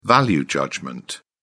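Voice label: "value judgment", British English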